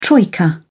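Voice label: "troika", British English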